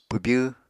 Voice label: "pwbyw", apatani